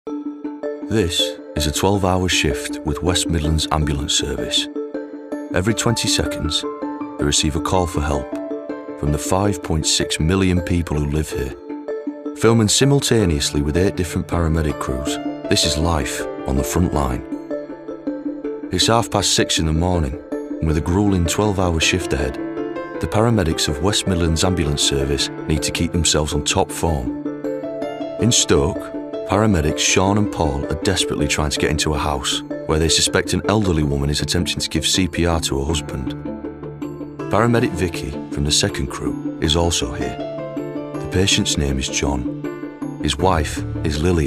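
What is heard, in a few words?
NARRATIVE - Documentary - Yorkshire; 999 Documentray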